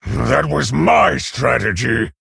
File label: Male Orc Ogre, MIRROR START; en